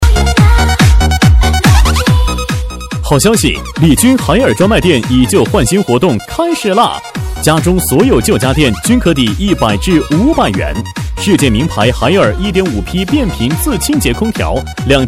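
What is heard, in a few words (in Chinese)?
男促销; 李军海尔专卖店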